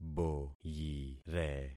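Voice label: "boyire", concatenated syllables; Affixing experiment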